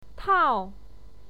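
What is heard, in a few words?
"tao4", Mandarin Chinese pinyin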